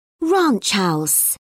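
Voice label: "ranch house", British English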